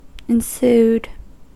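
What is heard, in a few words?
"ensued", US English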